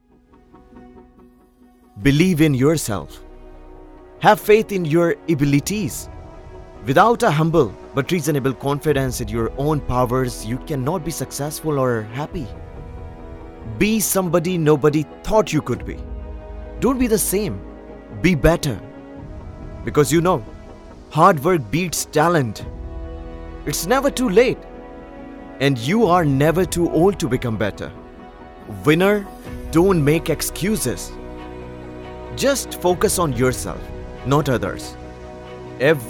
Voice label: Motivational; Never Give Up